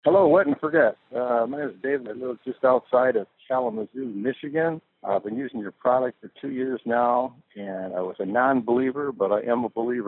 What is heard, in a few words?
Testimonial Voicemail